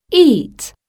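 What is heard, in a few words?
"EAT", English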